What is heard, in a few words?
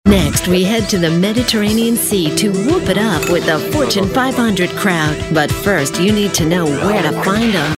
narrative cocky attitude